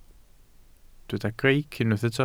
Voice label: "greic", Welsh

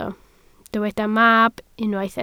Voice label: "mab", Welsh

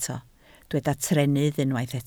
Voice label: "trennydd", Welsh

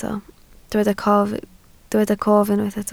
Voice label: "cof", Welsh

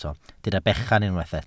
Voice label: "bechan", Welsh

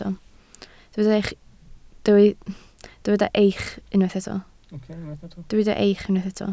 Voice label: "eich", Welsh

